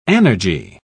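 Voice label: "anergy", British English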